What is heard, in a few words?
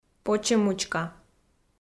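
"почемучка", Komi, female; kpv audio dict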